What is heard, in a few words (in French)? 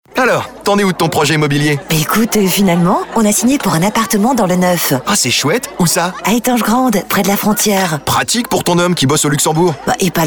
Joue, PUB TON Joué, voix off